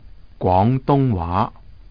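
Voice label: Cantonese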